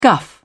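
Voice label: "guff", British English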